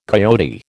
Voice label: "coyote", American